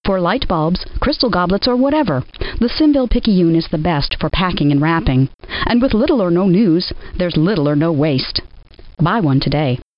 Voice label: Commercial; SimCopter; The Simville Picayune (Packing or Wrapping)